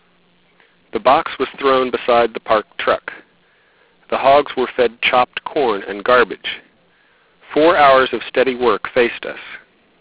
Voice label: Voice, 4 bit